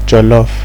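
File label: "jollof", Nigerian Pidgin, male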